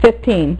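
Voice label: "fifteen", female; MacCribbage; InterStellar MacCribbage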